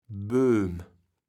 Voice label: "Bööm", kaevels platt

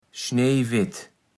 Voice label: "schnejwett", Platt

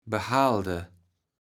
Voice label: "behalde", kaevels platt

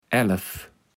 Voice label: "elff", kaevels platt

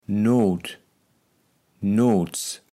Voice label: "nootnoots", kaevels platt

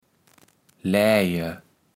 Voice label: "laeje", kaevels platt

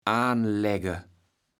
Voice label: "aanlägge", kaevels platt

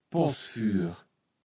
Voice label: "Poßfüür", kaevels platt

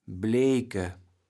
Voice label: "bleeke", kaevels platt

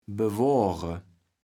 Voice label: "bewoare", kaevels platt

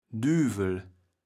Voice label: "Düwel", kaevels platt

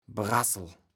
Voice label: "Brassel", kaevels platt

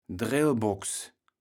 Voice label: "Drellbox", kaevels platt

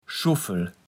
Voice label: "Schuffel", platt

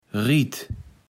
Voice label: "Riet", kaevels platt

